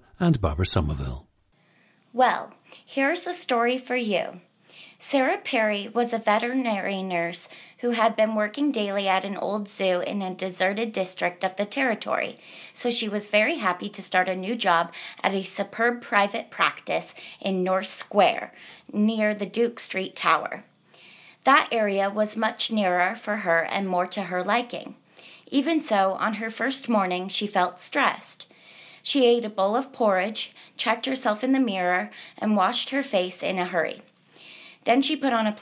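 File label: oregon, International Dialects of English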